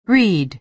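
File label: "read", American English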